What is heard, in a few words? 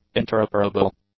"interoperable", english; adjectives